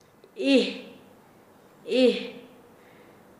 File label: Nasa Yuwe; desclavar; paez